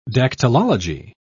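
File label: "dactylology", British English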